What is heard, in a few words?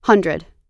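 "hundred", American English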